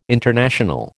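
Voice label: "international", American English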